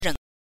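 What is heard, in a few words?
"reng", Mandarin Chinese, neutral tone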